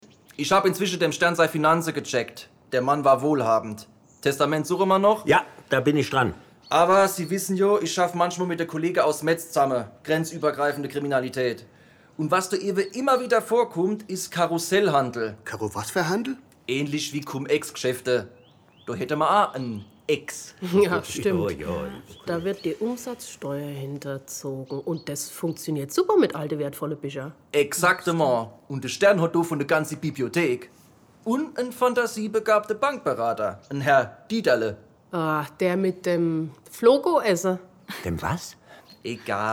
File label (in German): Hoerspiel de mit pfaelzischem Dialekt pfiffiger Ermittler Besprechung; SWR Radio Tatort